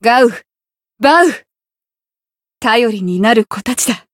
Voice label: win, jp